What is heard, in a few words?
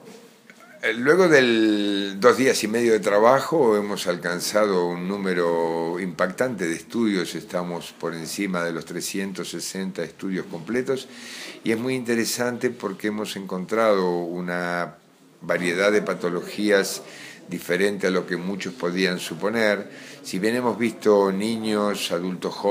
Intro Spanish; Ronderos